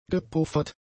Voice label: "gepuffert", German; adjectives